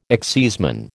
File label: "exciseman", American